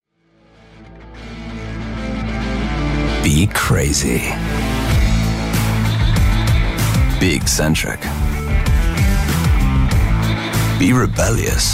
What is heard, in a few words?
Commercial; Hyundai